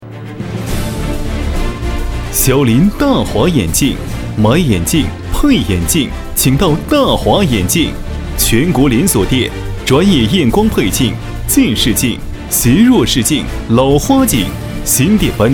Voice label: 男 促销 激情促销

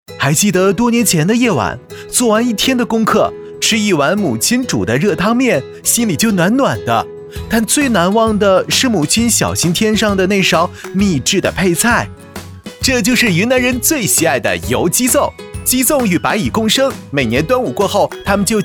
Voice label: 男; 飞碟说; 热汤面

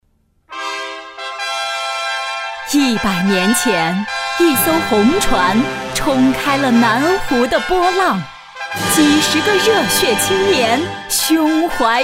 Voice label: 女 开场 朗诵活动大气

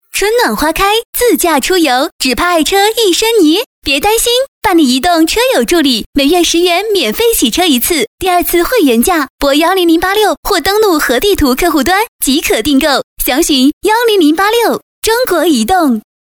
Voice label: 女 广告; 移动车友助理

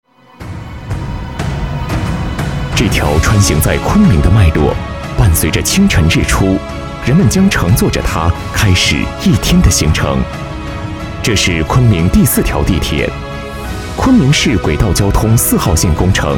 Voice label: 男 专题 工程项目解说 稳重大气

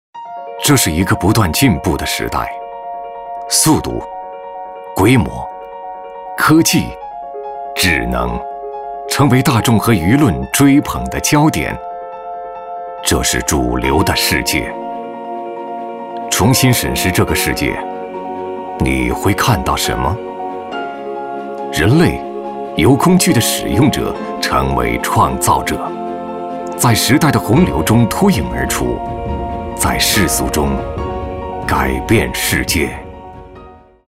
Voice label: 男 宣传片 科技探索

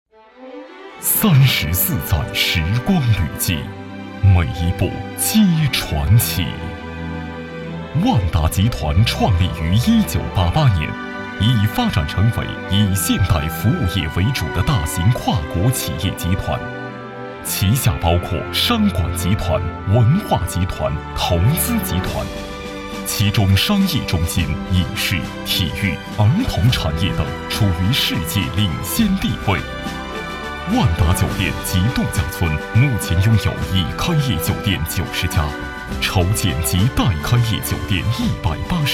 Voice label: 男 企业专题; 万达集团

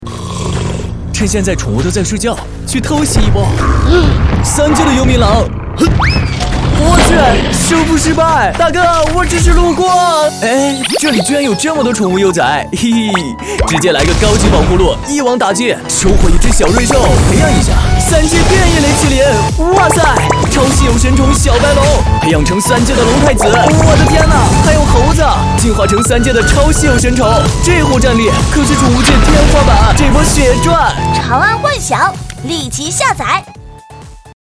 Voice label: 男 游戏信息流 临场感; 长安幻想偷袭幽冥狼

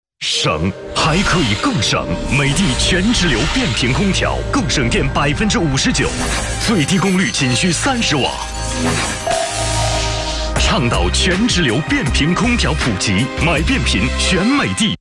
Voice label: 男 国语男声配音

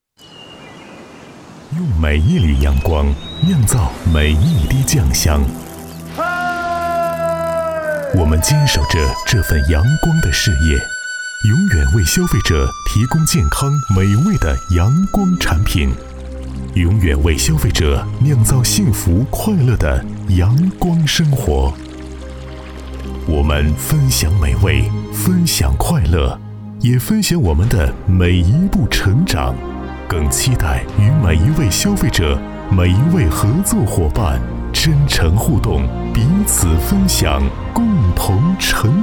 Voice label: 男 TVC广告 食品 酱油; 海天酱油

